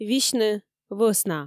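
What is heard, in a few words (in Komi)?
"висьны вӧсна", Komi, female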